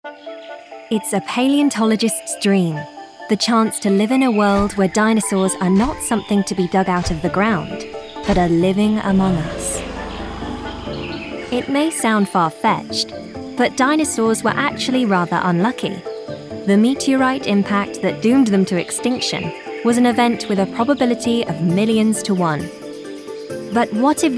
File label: Narrative